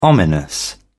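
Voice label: "ominous", English, British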